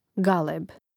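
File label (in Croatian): "galeb", hrvatski; mreznik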